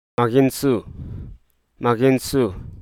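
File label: Hruso Aka; bold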